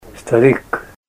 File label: old man, Ninilchik Russian; Category: ages